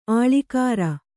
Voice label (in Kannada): "ಆಳಿಕಾರ", kannada